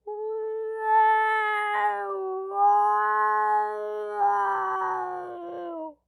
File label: Cartoon Human Male Weird Voice Cat